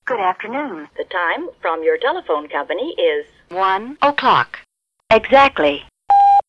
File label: audio USA; time of day announcement device; TIM 2015; self contained time of day announcement device